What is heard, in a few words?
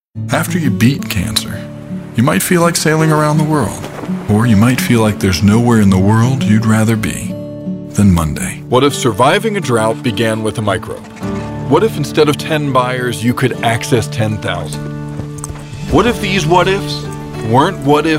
Commercial